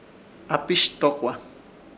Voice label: "Hapishdokwa", Ishir Chamacoco; tu turno